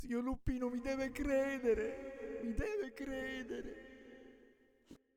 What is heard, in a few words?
Man Apologizes